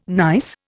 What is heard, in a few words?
"gneiss", Indian